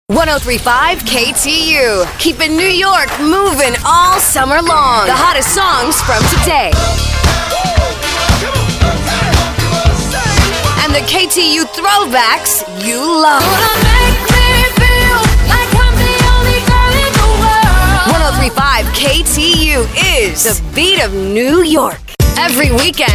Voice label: radio women rythmic ac, radio imaging